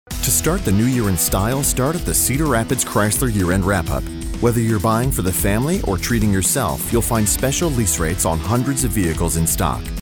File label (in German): us sprecher werbung